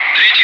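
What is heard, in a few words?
Russian calm; Wingman; DCS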